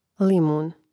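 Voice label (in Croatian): "limun" Croatian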